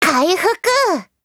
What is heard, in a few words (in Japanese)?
VO jp